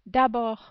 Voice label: "d abord", French

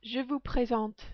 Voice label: "je vous presente", French

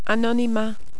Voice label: "anonymat", French

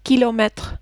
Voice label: "kilometre", French

